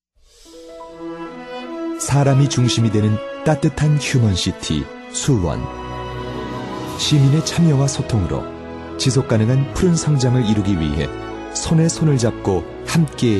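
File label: Korean, male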